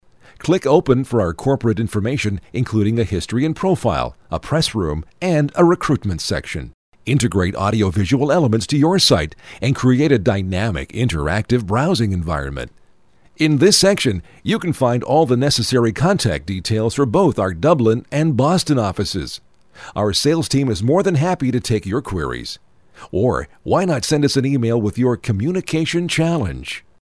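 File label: Canadian, male; voice over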